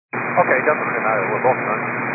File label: 122 725MHz, scanner radio